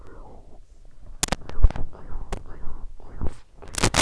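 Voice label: "cube", spoken word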